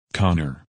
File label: "Connor", men names